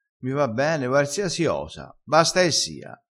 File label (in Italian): "basta e sia", vocabolario dialettale crespinese